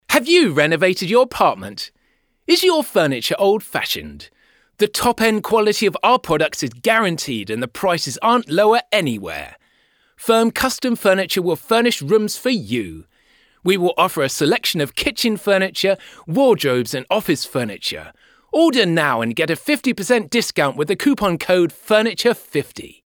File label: ENG male Positive